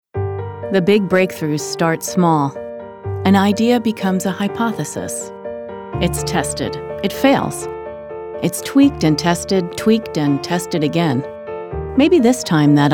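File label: Narration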